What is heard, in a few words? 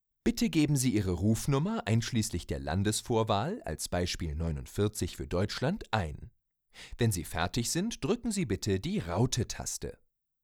German phone number with country code